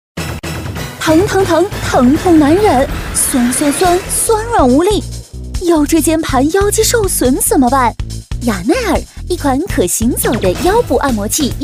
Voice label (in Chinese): 女-广告-轻松自然